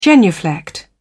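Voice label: "genuflect", British English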